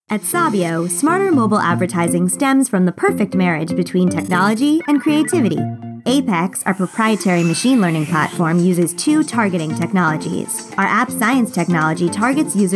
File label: Explainer Video